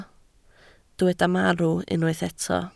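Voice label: "marw" Welsh, spoken word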